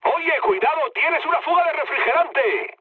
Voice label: damaged water, mood med, speech; War Thunder